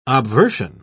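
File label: "obversion", British English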